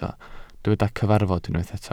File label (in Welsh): "cyfarfod" Welsh